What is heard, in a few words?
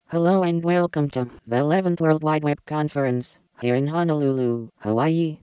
fest vox female